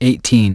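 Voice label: "eighteen", English; iOS 4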